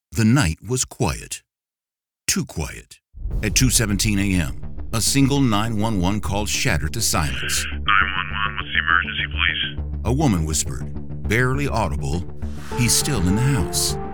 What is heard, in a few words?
True Crime Narration